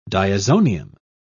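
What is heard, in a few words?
"diazonium", British English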